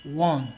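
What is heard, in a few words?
"one", Nigerian Pidgin, male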